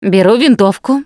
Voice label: take assault rifle, russian, Survivor Voice Producer